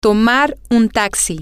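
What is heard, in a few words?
Spanish, to take a taxi, female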